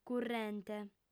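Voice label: "currente", female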